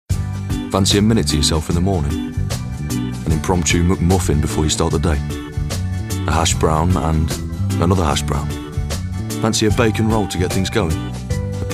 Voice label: COMMERCIAL; McDonalds